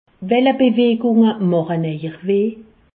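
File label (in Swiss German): "bewejunge", Alsatian; medecine; quels sont les mouvements qui vous font mal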